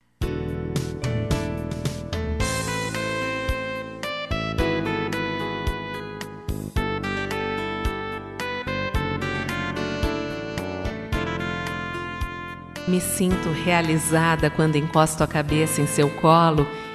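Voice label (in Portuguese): tele mensagem Carinho Romântico, voz feminina; Romantica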